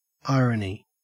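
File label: "irony", Australian English; pronunciations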